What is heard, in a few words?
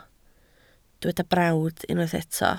"brawd", Welsh